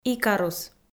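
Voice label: "икарус", Komi, female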